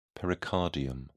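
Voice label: "pericardium", British English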